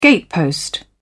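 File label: "gatepost", British English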